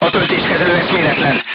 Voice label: voice message charger contused, crew tanks hungarian